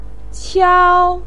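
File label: "qiao1", Mandarin pinyin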